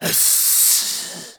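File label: jeers, German; Demoman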